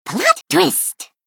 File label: Female Gnome Attack